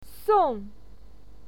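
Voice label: "song", Mandarin Chinese, fourth tone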